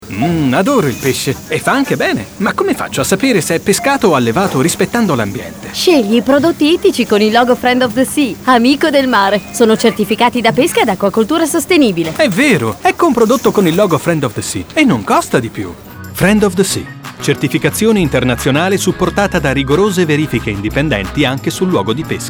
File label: radio spot